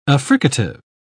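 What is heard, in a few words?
"affricative", English, uk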